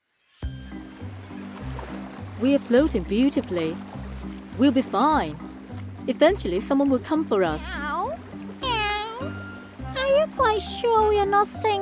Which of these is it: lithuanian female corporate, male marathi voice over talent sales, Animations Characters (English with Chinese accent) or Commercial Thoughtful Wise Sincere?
Animations Characters (English with Chinese accent)